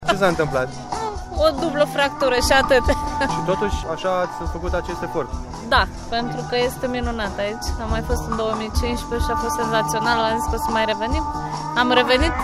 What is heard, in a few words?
vox jazz